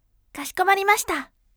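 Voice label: "kashikomarimashita", bright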